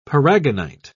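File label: "paragonite", British English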